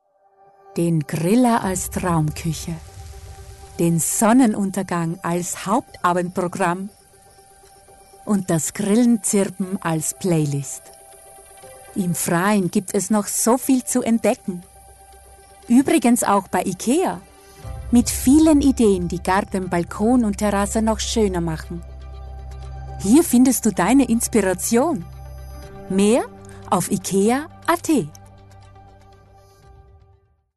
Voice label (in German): Werbung; IKEA